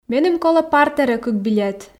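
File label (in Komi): меным колӧ партерӧ кык билет, Komi, female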